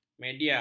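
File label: "media", Malay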